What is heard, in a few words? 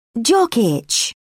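"jock itch", British English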